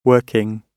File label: working, gb